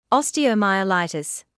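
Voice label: "osteomyelitis", British English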